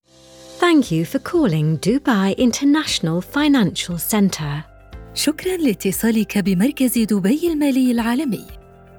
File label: Welcome Message Combined IVR English and Arabic